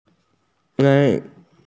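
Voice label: "rang4", Mandarin Chinese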